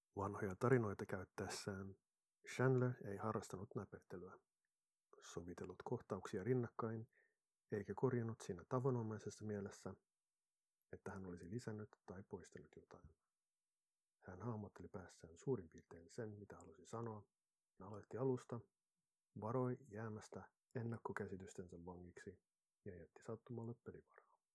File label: voice over finnish